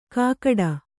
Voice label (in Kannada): "ಕಾಕಡ", Kannada